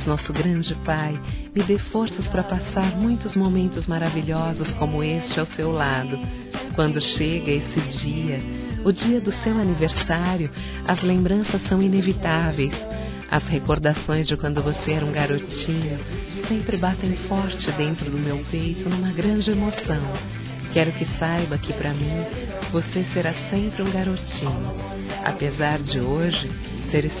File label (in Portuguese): FILHO (F)